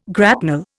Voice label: "grapnel", Indian